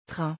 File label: "train", French